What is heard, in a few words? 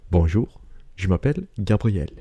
french